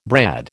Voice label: "brad", American